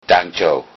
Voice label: thanks, low saxon